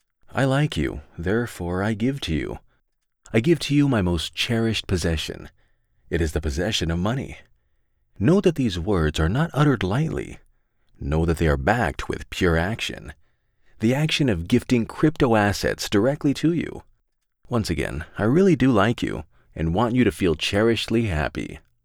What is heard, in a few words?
female; 18 29